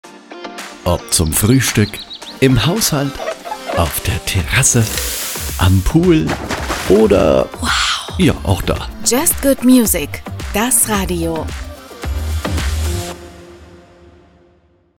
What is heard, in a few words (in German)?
Station ID